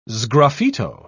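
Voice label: "sgraffito", British English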